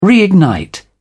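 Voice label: "reignite", British English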